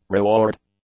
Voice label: "reward", English; nouns